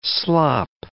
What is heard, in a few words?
"slop", words